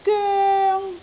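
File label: "goeng1", Cantonese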